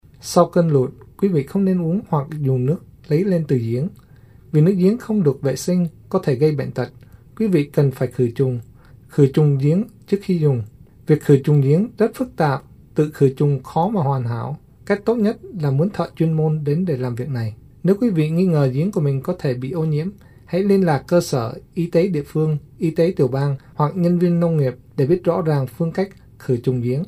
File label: vietnamese private wells; disaster